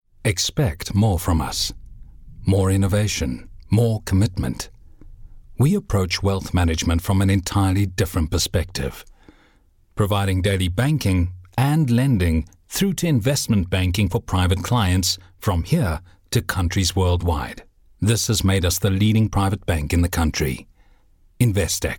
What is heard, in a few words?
English Corporate